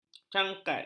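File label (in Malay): "cangkat", Malay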